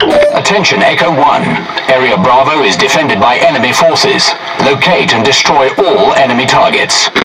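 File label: Speech Tutorial Task, British English; World Of Warships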